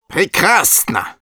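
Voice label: positive vocalization, Russian; «Прекрасно.»; Medic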